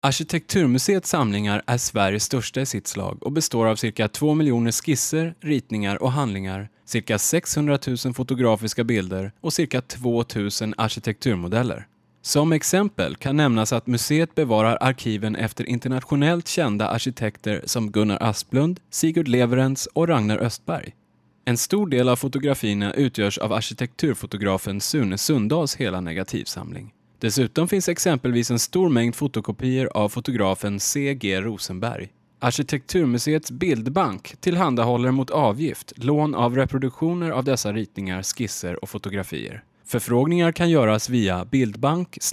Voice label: SE male